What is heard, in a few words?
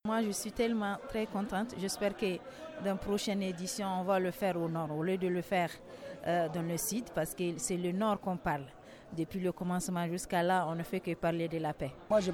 VOX POP, fr; FIN BIENNALE